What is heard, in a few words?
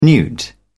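"newt", British English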